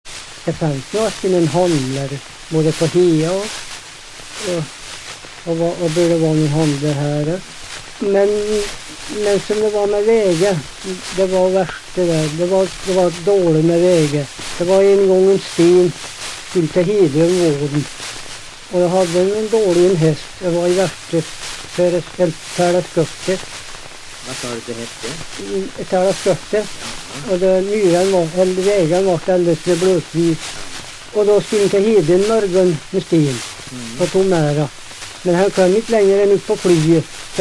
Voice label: Hede, dialekter; Folkminnen

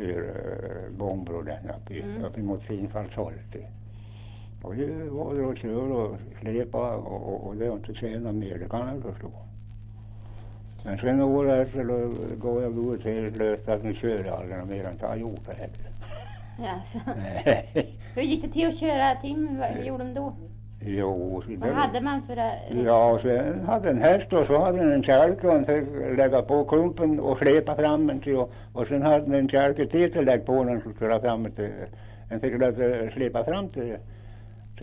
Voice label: Kopparberg, dialekter; Folkminnen

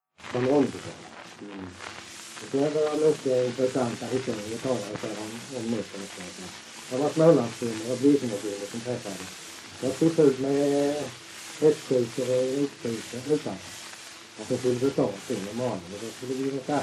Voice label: Öljehult BLEK, dialekter; Folkminnen; Svenska sagor

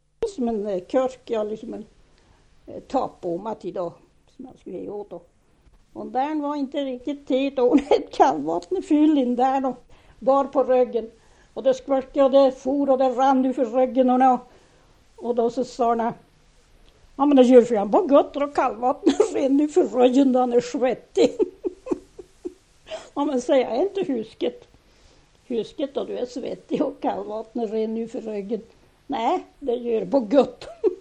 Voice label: Anundsjö, dialekter; Folkminnen; Svenska sagor

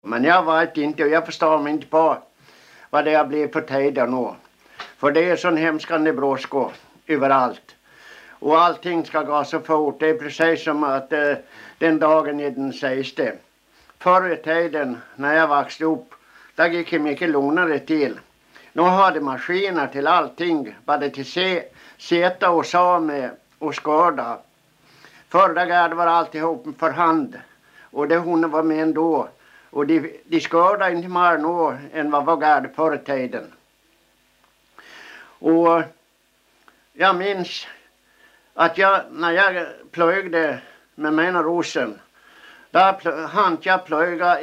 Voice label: Faro, dialekter

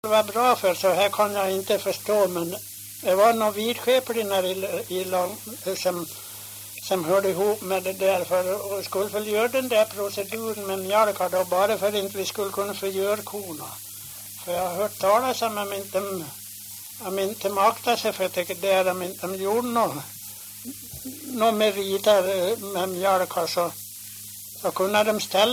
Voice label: dialekter; Folkminnen; Svenska sagor

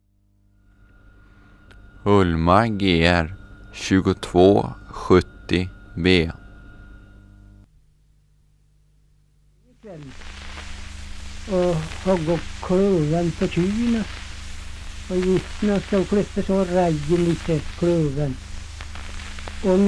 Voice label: Hede, dialekter, sagor; Folkminnen

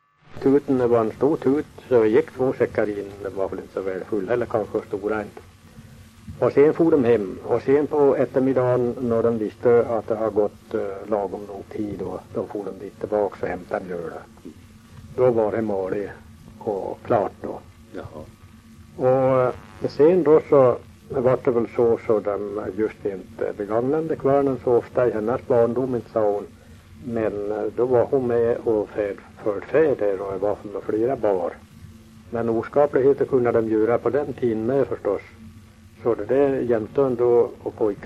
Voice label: dialekter; Folkminnen; Svenska sagor

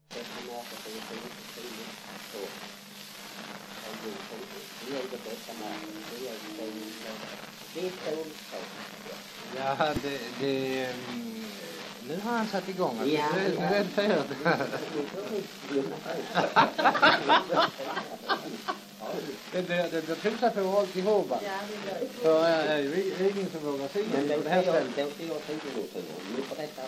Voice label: dialekter; Folkminnen; Svenska sagor

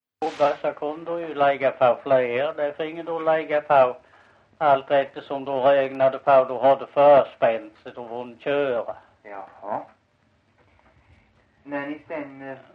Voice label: Visseltofta SK, dialekter

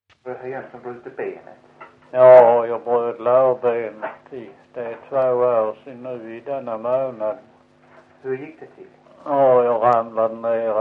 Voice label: Visseltofta SK, dialekter

